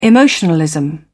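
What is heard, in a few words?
"emotionalism", British English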